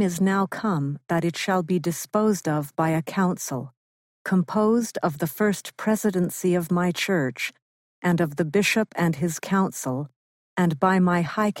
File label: section female voice eng